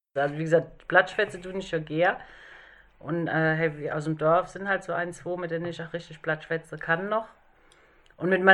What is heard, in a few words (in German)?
platt; schwetze